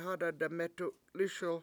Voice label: bring something cold, washo; hada demEtu7 lISel

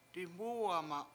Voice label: "diMUwema7" I ran over, Washo

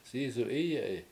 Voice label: "sIs1w Iye7i", washo; bird walks

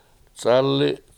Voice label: "c7Ali7", Washo; Cotton Tail

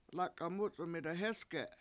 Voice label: lAk$a7 MUc$1m 7ida hEsge7 twelve, Washo

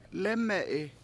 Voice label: lEme7i I Am Drinking, Washo

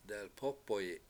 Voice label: "dalpOpoyi7", Washo; White

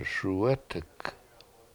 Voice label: "SuwEt7ek7", Washo; Serviceberry

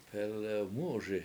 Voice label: "pElew MU7uSi", washo; rabbit runs

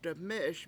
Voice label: "deME7eS", Washo

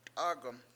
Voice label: "t7AAg1m", Washo; pinenut

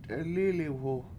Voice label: "t7elIIliwhu", Washo

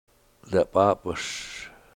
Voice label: "dap'AAp'1S", Washo, his lungs

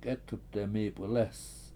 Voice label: Empty Jar, washo; k7Etep demIIp71lEEs

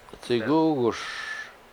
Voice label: "c7igUUgush", Washo; Stomach Or Belly

